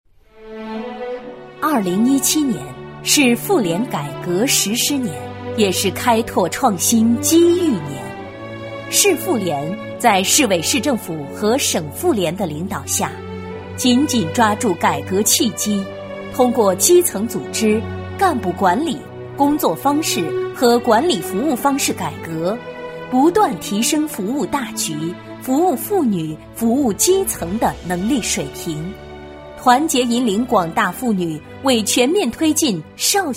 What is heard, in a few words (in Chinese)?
女 专题 妇联; 绍兴; 绍兴妇联